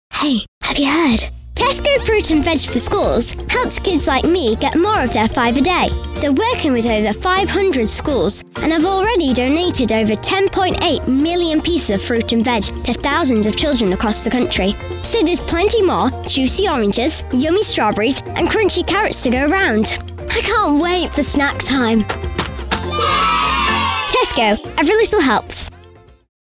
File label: brand voice; tesco brand voice